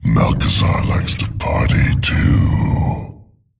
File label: Male Demon Emote Party; Malchezaar